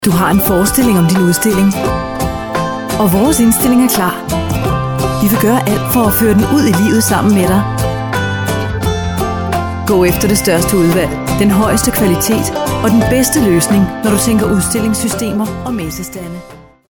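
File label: Danish, female, commercial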